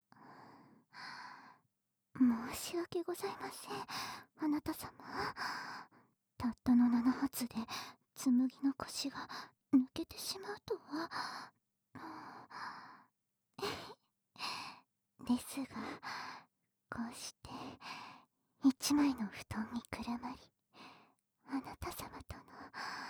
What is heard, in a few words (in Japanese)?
添い寝でピロートーク